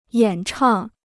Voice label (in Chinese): "演唱", Chinese